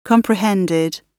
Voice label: "comprehended", gb